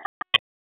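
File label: Raleigh Police North District Tactical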